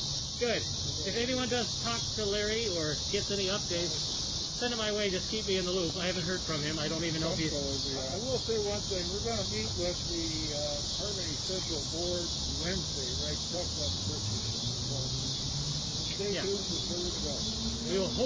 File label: ANNOUNCEMENTS